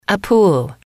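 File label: "a pool", female, English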